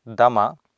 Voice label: "dama", Sanskrit